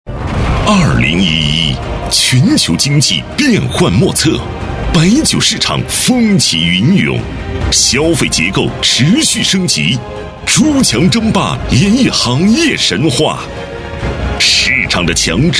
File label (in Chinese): 专题 企业 大气, 男国; 古井贡酒集团